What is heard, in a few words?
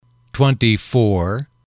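"twenty four", English, male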